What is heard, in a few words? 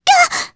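Vox Sur Japanese; Mirianne; kingsraid; jp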